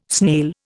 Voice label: "snail", Indian

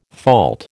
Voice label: "fault", American

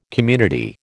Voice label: "community", American

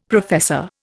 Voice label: "professor", Indian; Dictionary

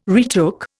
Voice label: "retook", Indian

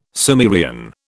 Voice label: "Sumerian", British

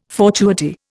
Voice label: "fortuity", Indian

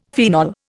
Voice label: "phenol", Indian; Dictionary

